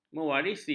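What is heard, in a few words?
"mewarisi", Malay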